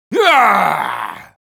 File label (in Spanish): Sniper battle cry, es; ¡Gaaaagh!; Respuestas del Sniper